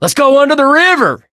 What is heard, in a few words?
ticket counter, mechanic; Left 4 Dead 2; Source Engine; video games